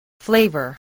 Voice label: "flavour", spelling